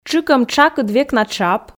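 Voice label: "тшыкӧм тшакыд век на тшап", Komi, female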